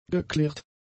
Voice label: "geklirrt", German; adjectives

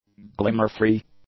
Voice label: "glimmer-free", english; adjectives

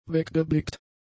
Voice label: "weggeblickt", German; adjectives

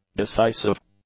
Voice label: "decisive", english; adjectives

